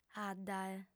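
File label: "addae", lingua sarda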